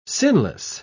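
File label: "sinless", British English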